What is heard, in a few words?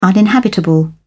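"uninhabitable", British English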